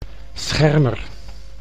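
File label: "Schermer", Dutch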